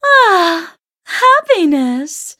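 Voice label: level up, English; cookie run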